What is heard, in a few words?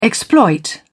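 "exploit", English, British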